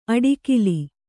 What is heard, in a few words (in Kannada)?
"ಅಡಿಕಿಲಿ", Kannada